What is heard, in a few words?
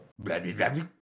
crazy mumble; mvm forever